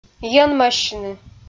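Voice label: "йӧнмӧссьыны", Komi, female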